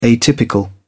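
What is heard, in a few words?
"atypical", British English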